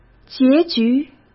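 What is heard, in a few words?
"结局", Mandarin Chinese; chinese-flashcards; hsk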